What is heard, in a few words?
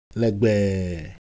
Ewe Shape, ideophones